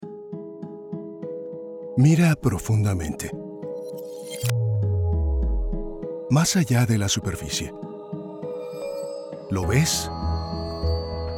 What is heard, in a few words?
E-Learning Spanish